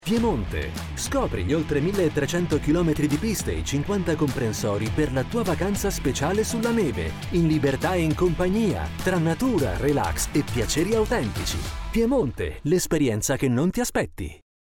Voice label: commercial, italian, male